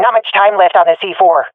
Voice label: cover defuse, swat fem; Counter Strike Model Pack; CS:GO